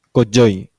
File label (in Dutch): "kojói", Guarani; thee-in-andere-talen